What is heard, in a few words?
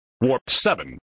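"Warp Seven", male voice; Worf; flipper; sttng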